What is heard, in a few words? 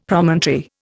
"Promontory", Indian; Dictionary